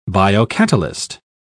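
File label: "biocatalyst", English, uk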